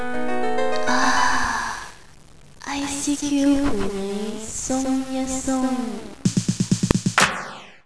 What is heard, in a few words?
Startup, Cantonese girl; ICQ